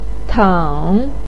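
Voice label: "teng", Mandarin Chinese syllable, third tone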